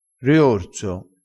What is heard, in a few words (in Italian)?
"riorso", crespinese